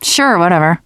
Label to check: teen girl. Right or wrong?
Right